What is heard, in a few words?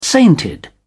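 "sainted", British English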